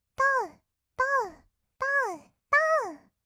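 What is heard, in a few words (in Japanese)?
"とうとう", Japanese, kawaii voice; 合いの手; 1200 kawaii voice!!; ASMR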